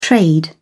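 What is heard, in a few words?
"trade", British English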